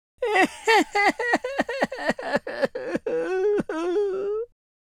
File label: sad, Super Villain; speech